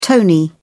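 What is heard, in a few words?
"tony", British English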